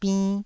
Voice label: "binn1", taiwanese